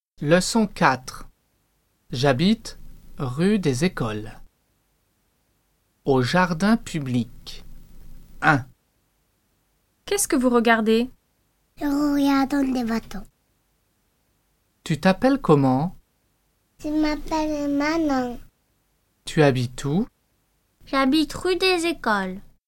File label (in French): Dialogue au jardin public